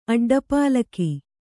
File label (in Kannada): "ಅಡ್ಡಪಾಲಕಿ", Kannada